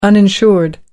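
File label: "uninsured", British English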